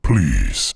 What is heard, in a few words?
demon vocal please; aaa game character